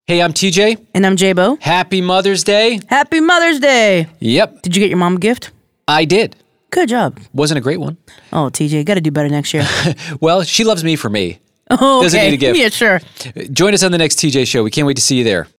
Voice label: SUN PROMO